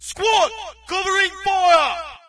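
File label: british cover, player; Source Engine; dod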